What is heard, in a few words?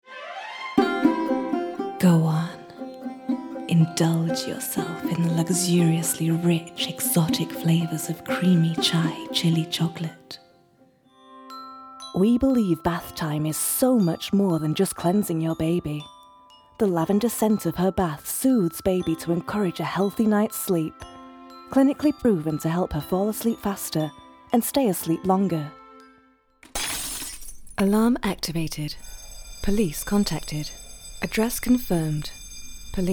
commercial vo